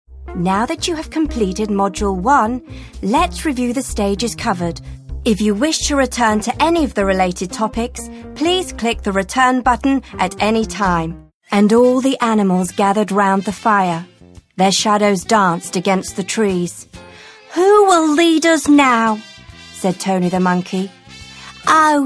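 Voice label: British English, narration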